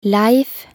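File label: "Leif", Norwegian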